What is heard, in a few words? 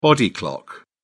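"body clock", British English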